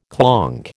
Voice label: "clonk", American